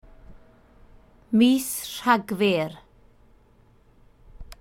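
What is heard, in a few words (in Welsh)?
"mis rhagfyr", Welsh; December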